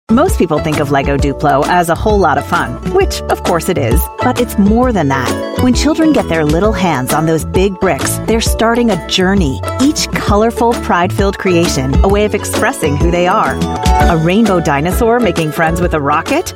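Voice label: US English Commercial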